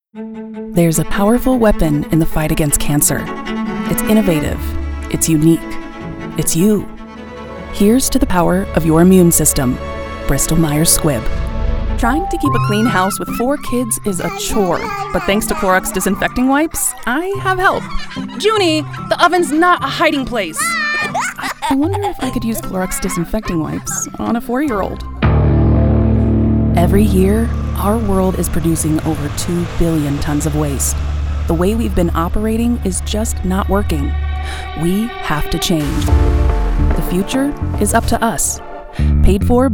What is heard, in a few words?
Commercial